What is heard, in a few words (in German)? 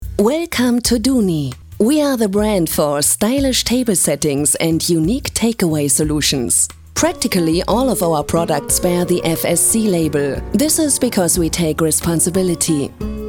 Englisch Imagefilm; Duni